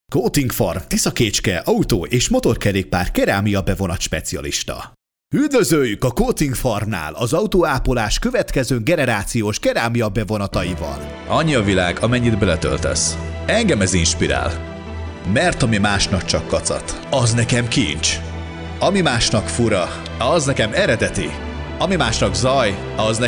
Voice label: hun male; lektoring